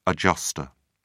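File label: "adjustor", British English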